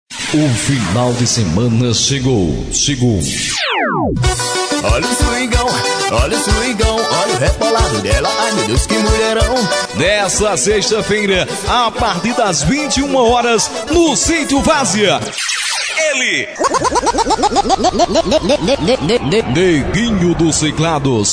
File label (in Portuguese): locutor chamada